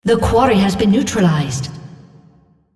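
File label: Quarry Neutralized female; Odin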